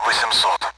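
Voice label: Speech Sound Russian Common AWACS Numbers; DCS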